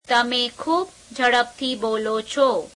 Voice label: gujarati you speak very fast; 2nd pronoun + adverb